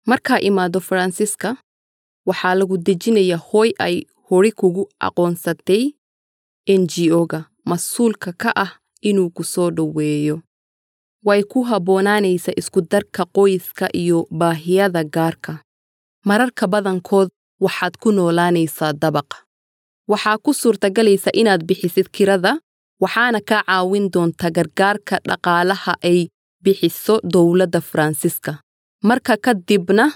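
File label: Somali narration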